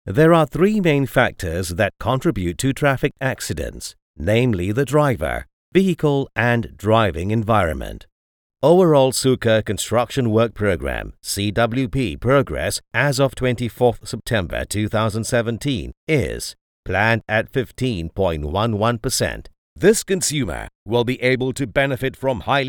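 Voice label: Malay, Acc EN; GoLocalise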